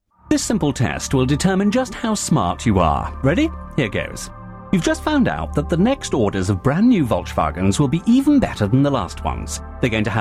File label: Car Advert